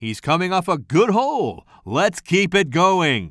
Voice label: commentator